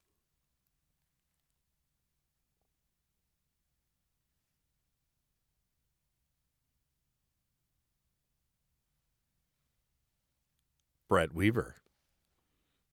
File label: Booth Sound Test